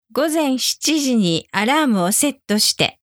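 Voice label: alarm Set alarm for 7AM, japanese alexa commands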